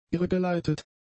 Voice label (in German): "irregeleitet", german; adjectives